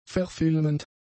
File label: "verfilmend", German; adjectives